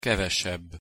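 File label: "kevesebb", Hungarian pronunciation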